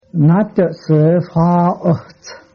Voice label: goodbye cheyenne

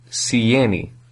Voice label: how are you, albanian; Si jeni?; Albanian (Tosk)

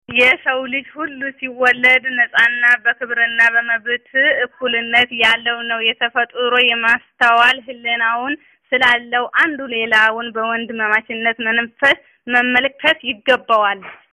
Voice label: Amharic

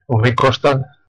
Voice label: how much, west flemish

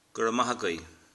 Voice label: "thanks", Irish

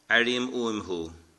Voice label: i miss you, Irish

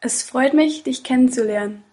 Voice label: meet, german

